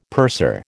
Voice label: "purser", American